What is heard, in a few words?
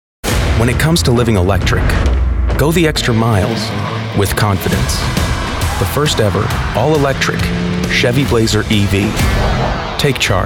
Commercials